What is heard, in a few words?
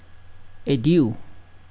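"adieu", Nepalese, male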